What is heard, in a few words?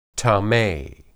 "tame", Hebrew